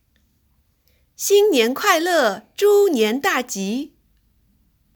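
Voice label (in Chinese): xinnian kuaile zhunian daji, female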